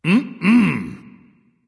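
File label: disagree, dragon; fafnir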